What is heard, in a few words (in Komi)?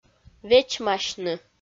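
"вечмасьны", Komi, female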